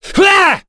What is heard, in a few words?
Ricardo Vox Attack, voices heroes en; kingsraid-audio